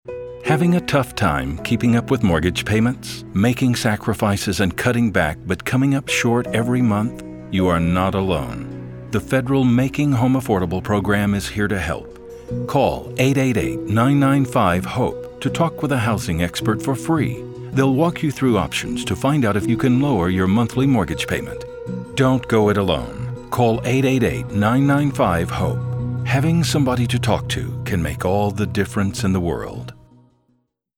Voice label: Eng Male; You Are Not Alone